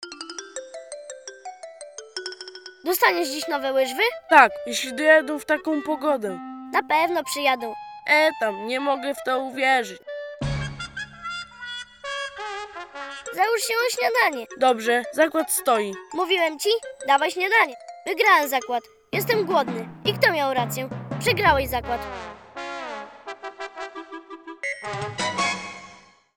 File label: child male